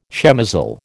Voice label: "shemozzle", American

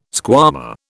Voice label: "squama", British

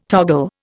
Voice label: "toggle", Indian

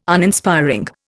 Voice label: "Uninspiring", Indian

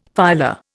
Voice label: "phyla", Indian; Dictionary

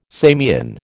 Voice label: "Samian", American

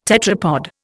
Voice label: "tetrapod", Indian

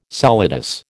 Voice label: "solidus", American

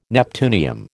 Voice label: "neptunium", American

